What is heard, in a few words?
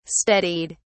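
"steadied", British English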